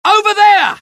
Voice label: alert, dialogue UK; mohaa